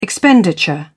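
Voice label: "expenditure", English, British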